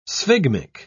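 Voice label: "sphygmic", British English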